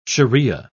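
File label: "shariah", British English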